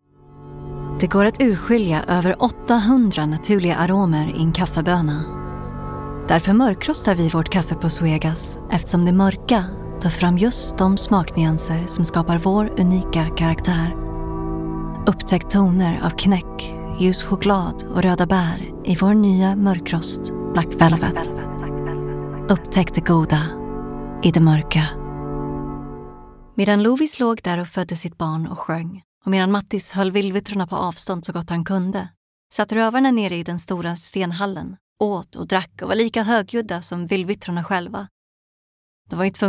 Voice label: Swedish, Scandinavian, Female, Home Studio, 20s-30s